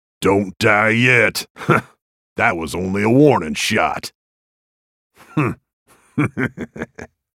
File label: taunt, en US; Graves; Character Voices - English